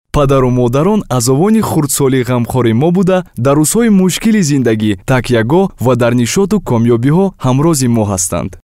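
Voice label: Tajikistan male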